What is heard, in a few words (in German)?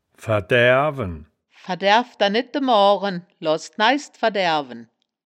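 "ver-daeaerwen", Wittlicher Wörterbuch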